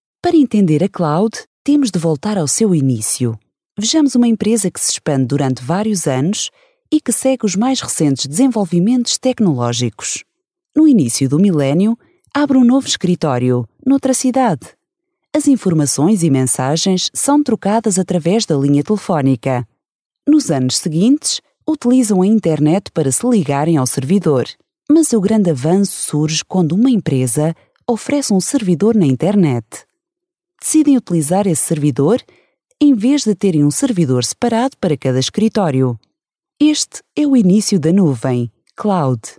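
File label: Portuguese, female, Corporate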